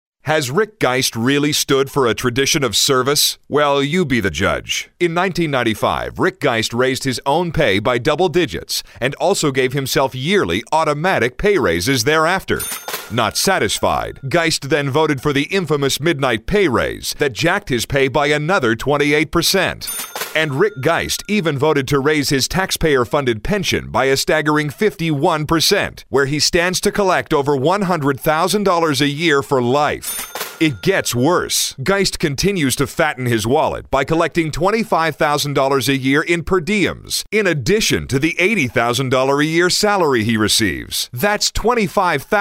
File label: negative, political